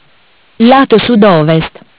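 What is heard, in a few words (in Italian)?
"Lato Sud-Ovest", Italian; Bentel; BLE320; MESSAGGI VOCALI; Audio BGS220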